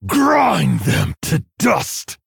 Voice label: Male Orc Attack

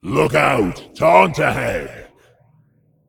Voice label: Demon Emote ERROR TAUNT

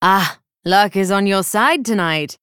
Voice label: Female Night Elf Ahead

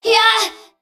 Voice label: Female Mech Attack

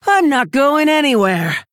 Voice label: Female Orc Idle; Rokara

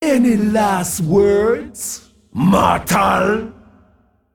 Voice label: Male Dragon; Kazakusan

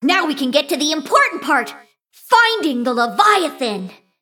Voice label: Female Mecha Gnome

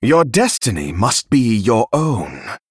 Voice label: Male Dragon Behind

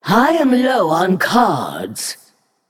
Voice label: Female Naga Low Cards

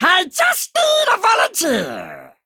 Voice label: Male Imp Play

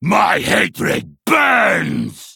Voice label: Male Broken Attack

